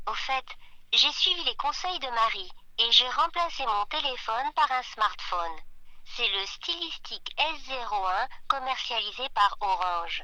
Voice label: slow voice on; fr